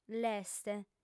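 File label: "l'est", female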